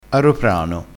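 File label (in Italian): "aroprano", dialettale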